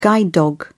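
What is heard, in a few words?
"guide dog", British English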